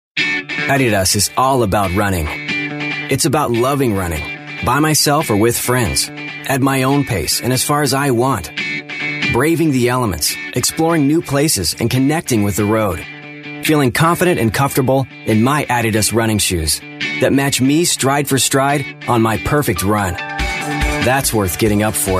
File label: american male commercial, natural